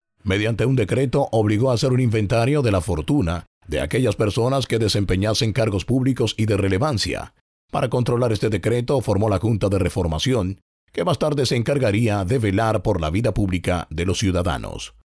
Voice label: locutor santo domingo dominicano dominican voiceover